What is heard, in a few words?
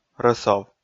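"рӧсов", Komi, male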